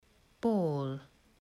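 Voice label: "ball", English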